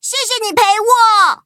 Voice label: level up, zh-hant